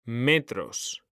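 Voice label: "metros", Spanish; mekanta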